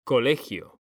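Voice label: "COLEGIO", Spanish, male; mekanta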